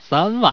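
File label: "3 wan", Chinese, male; 男; 欢乐麻将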